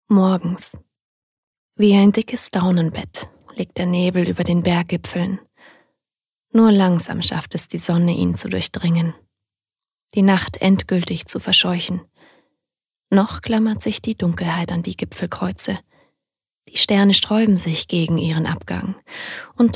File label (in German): Schauspiel, Sanft